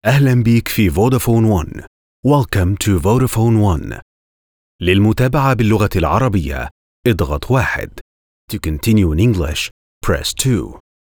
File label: Multilingual IVR On Hold Voicemail Greetings